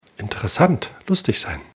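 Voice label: "interessant sein", German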